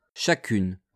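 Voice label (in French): "chacune", French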